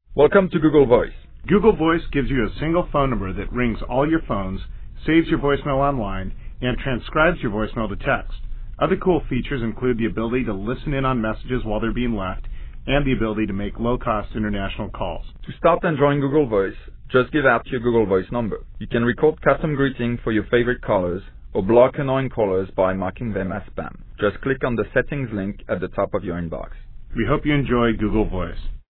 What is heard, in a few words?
google voice welcome